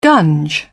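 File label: "gunge", British English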